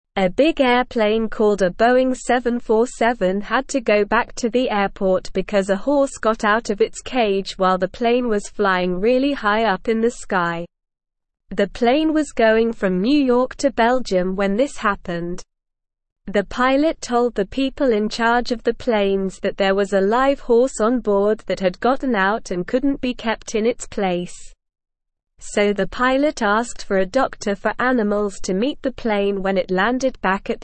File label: English Upper Intermediate SLOW Reading; Horse chaos forces cargo jet to turn back; English Newsroom